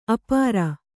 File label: "ಆಪೂರ", kannada